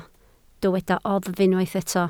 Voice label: "oddf", Welsh